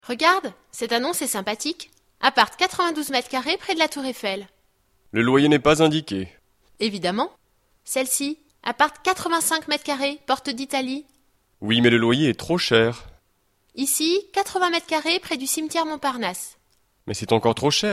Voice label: dialogue, French